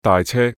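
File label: "大車", Cantonese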